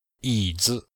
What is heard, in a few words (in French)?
"yi3zi5", chinois; Cours de Chinois